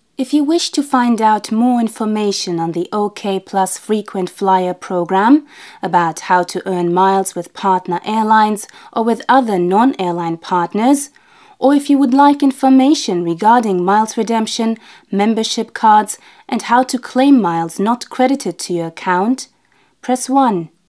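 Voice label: Female English ivr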